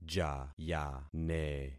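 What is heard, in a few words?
"jayane", concatenated syllables; Affixing experiment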